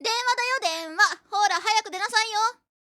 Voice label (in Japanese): イメージボイス 着信っぽいイメージ; はたて